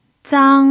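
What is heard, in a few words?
"zang1", Mandarin Chinese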